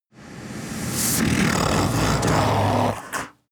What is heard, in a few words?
demon dark voice reverse fear of dark